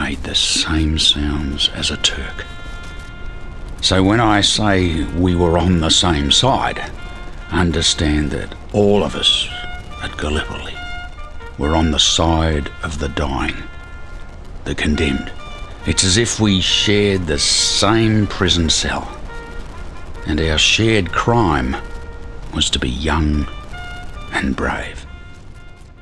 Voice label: Documentary